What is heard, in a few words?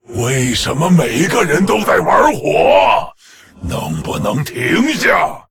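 Male, Chinese; Lich; FIRE FESTIVAL; zh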